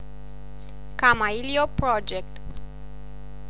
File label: kamailio project female; kamailio pronounciation